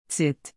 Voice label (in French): "tit", Canadian French; Québec; (pronounced “tee”)